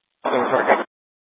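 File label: Mesa Police Department Superstition Dispatch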